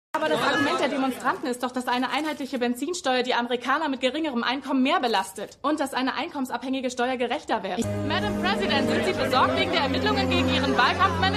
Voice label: Reporterin; Madam Secretary

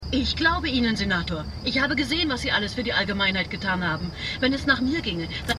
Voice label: Anruferin; The Wire

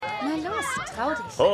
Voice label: Mutter; Ajeets Mutter

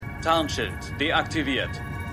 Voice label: Computerstimme Raumschiff; Captain Marvel

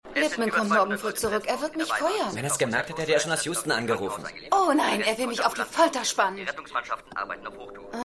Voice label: TV Sprecher, Stimmproben; Seinfeld

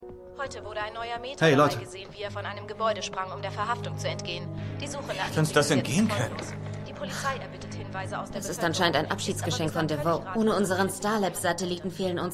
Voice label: Nachrichtensprecherin; The Flash

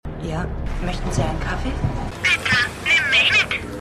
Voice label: Junges Opfer; Patricia Bissette; Boston Strangler

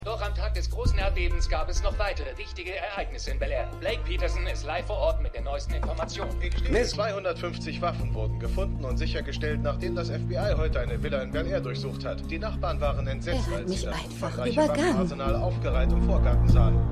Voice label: Nachrichtensprecher; Stimmproben

